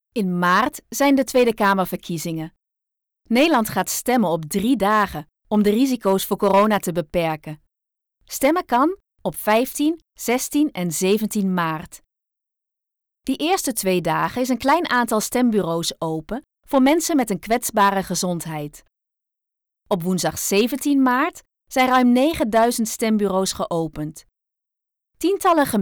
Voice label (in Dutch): Taalswitch luisteropdracht moeilijk